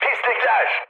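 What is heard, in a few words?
Turkish enemy detected, mood med, speech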